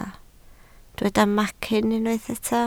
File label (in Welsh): "macyn", Welsh